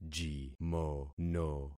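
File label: "jimono", concatenated syllables; Affixing experiment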